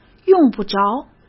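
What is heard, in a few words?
"用不着", Mandarin Chinese; chinese-flashcards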